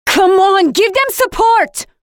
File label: Friendlies (attackers) start capturing, Voiceovers; Project Argo